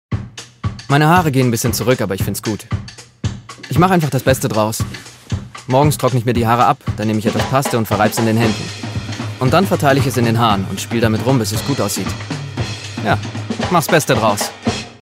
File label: Character Werbung; AXE